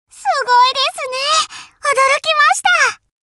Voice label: taunt, voice commands Anime Loli; svencoop

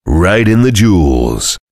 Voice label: cheer; svencoop; voice commands; Duke

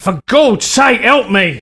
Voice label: Help, male, voice commands; svencoop

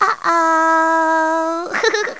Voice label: uh oh; svencoop; teletubbies

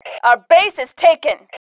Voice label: our base taken, FEM

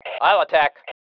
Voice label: I attack, male

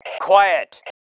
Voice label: quiet, male

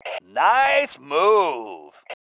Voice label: NICE, MALE

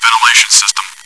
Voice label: chitchat, bot, voice commands; svencoop

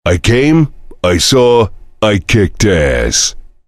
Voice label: chitchat; svencoop; voice commands; Duke

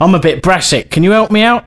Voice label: Need Money, male; svencoop

